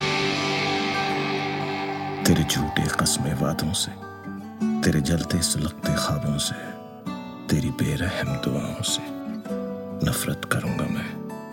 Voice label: Poem Sad

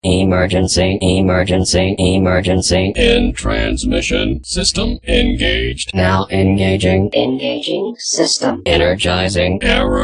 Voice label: Alien Talking

